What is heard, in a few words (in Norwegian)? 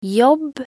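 "jobb" Norwegian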